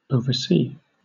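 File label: "oversea", British English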